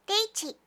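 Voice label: "ri-chi", Japanese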